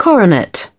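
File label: "coronet", British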